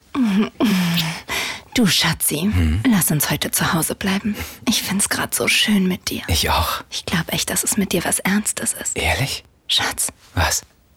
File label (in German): Szene romantisch; Hyundai